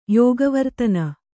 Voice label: "ಯೋಗವರ್ತನ", Kannada

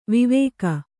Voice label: "ವಿವಾಕ", Kannada

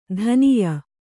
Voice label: "ಧನಾಯೆ", Kannada

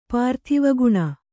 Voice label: "ಪಾರ್ಥಿವಗುಣ", Kannada

